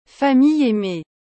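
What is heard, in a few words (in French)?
"famille aimée", French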